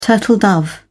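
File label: "turtle dove", British English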